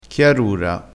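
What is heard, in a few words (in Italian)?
"chiarura", dialettale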